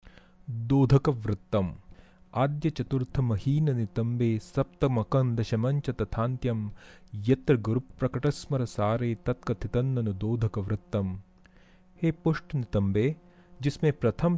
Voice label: dodhaka, Sanskrit metres